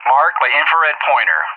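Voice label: "Marked by IR", English; JTAC; DCS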